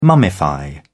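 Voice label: "mummify", British English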